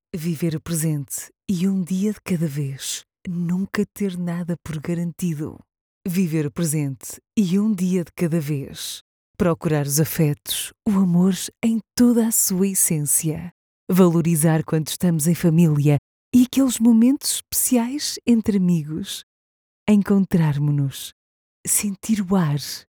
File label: VO Emotional